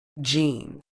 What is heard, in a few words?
"gene", medical English